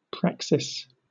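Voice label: "praxis", British English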